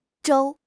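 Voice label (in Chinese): "粥", Chinese; HSK6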